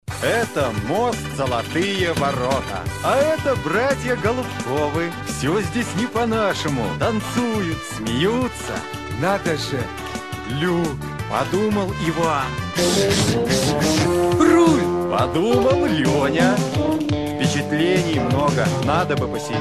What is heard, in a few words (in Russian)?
Reklama; Reklama MMM